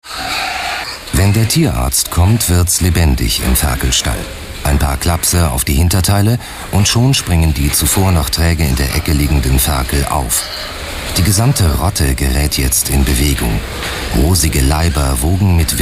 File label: Neutral